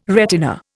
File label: "retina", Indian